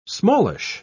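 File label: "smallish", English, British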